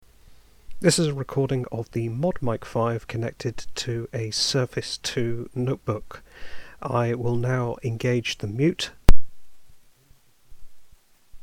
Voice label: Microsoft Surface 2 ModMic 5 Mute